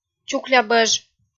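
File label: "чукля бӧж", Komi, female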